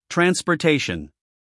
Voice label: "transportation", us male; 1000 hours